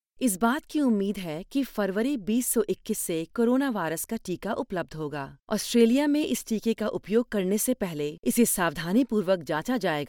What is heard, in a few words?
Health PSA Hindi; AustralianGov